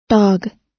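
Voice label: "dog", American English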